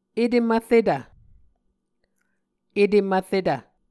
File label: "etem-vretv", muscogee